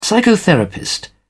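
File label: "psychotherapist", British English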